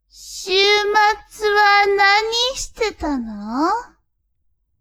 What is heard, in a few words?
woman